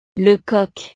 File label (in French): "le coq", frances; el gallo